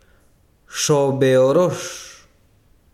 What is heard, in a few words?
besiro lluvia, chiquitano